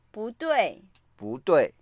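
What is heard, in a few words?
"bu4dui4", Mandarin Chinese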